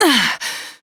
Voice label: Pain, Female; Warframe; Railjack; New Loka

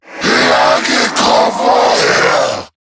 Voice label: Attack, en; Zariman Wraith

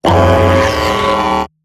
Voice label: Death, Robot Large; Warframe; Eximus Corpus Robotic Large; Battle Barks

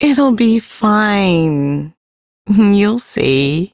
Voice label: Ambient Female, en; Duviri

